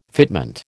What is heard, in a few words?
"fitment", American